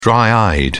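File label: "dry-eyed", British English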